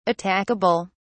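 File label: "attackable", English, British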